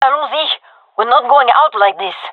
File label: round losing, fem; vo